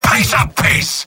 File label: sniper positive vocalization; tf2; mvm